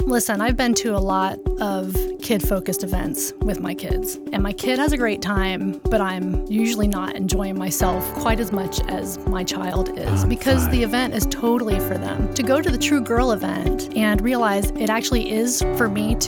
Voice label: radio ad